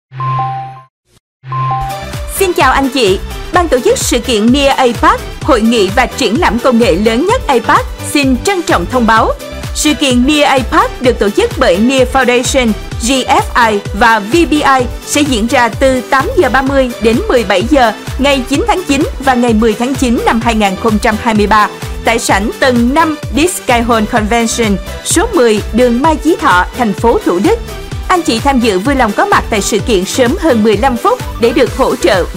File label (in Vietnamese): Thu Am Quang Cao Su Kien Giong MC nu mien Nam hap dan Radio Ad; Near Apac